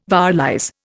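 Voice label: "vowelize", Indian English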